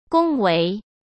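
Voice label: "恭维", Mandarin Chinese; hsk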